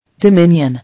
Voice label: "Dominion", English